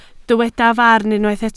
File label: "farn", Welsh